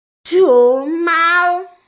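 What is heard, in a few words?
"xióng māo", Mandarin Chinese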